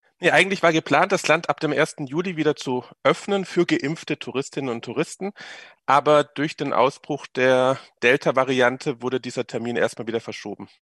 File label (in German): radio; Israel Oeffnung fuer Touristen verschoben; radio SAW